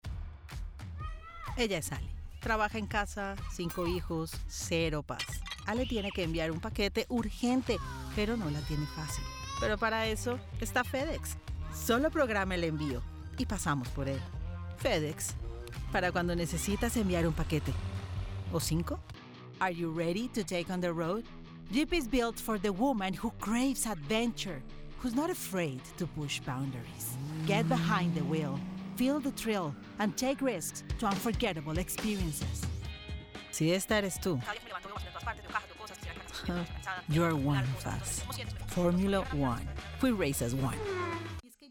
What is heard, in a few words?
COMMERCIAL